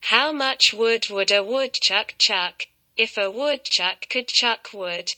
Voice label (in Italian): scioglilingua inglese; google traduttore